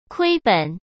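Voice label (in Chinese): "亏本", Mandarin Chinese; hsk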